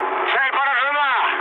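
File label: Russian bomb success mood med, speech; War Thunder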